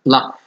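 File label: "laugh", Nigerian Pidgin, male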